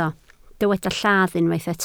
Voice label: "lladd", Welsh